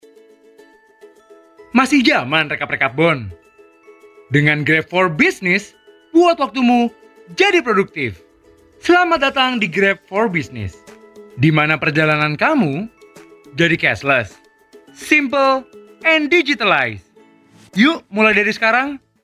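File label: Indonesian casual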